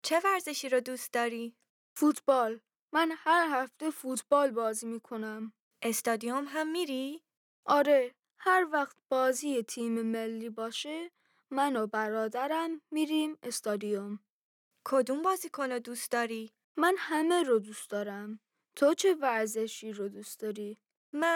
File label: Dialogue lesson Farsi